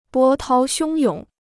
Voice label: "波涛汹涌", Chinese

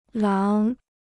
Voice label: "狼", Chinese

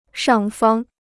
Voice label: "上方", Chinese

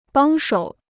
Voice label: "帮手", Chinese

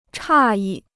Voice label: "诧异", Chinese

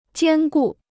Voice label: "兼顾", Chinese

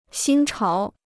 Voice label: "新潮", Chinese

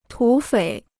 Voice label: "土匪", Chinese

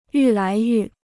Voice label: "愈来愈", Chinese

